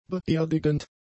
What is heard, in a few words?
"beerdigend", German; adjectives